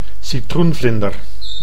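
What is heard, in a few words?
"citroenvlinder", Dutch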